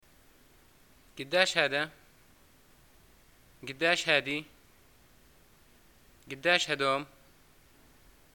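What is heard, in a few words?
shopping how much, Libyan arabic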